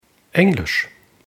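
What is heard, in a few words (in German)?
"englisch", German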